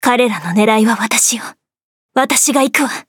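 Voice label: start, Japanese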